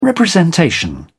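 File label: "representation", British English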